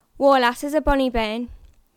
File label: Geordie, accents of english